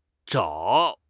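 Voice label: "zhao3", Chinese; HSK; HSK2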